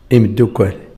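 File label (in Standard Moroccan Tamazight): "imddokkʷal", Standard Moroccan Tamazight; ⵉⵎⴷⴷⵓⴽⴽⵯⴰⵍ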